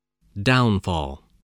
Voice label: "downfall", spelling

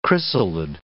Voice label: "chrysalid", English

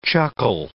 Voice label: "chuckle", English

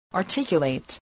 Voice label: "articulate", English

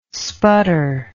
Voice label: "sputter", english

